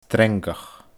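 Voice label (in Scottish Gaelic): "sreangach", Scottish Gaelic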